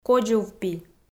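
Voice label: "кодзувпи" Komi, female; audio dict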